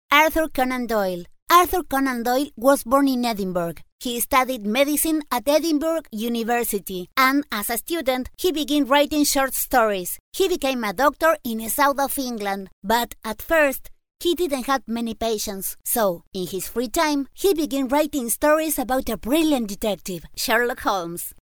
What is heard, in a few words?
english child voice latin american accent; Recordings of children voiceovers